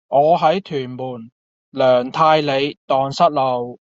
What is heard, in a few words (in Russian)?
Гонконгский